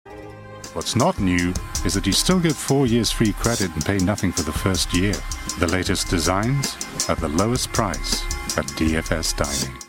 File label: COMMERCIALS; DFS